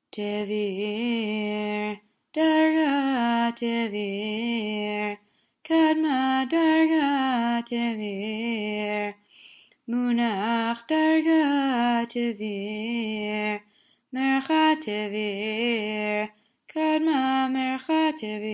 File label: polish lithuanian esther תביר